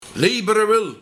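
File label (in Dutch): "Liebere wil", Luik, Uit vrije wil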